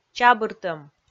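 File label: "чабыртӧм", Komi, female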